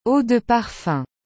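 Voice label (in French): "eau de parfum", French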